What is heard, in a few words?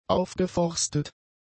"aufgeforstet", German; adjectives